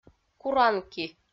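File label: "куран ки", Komi, female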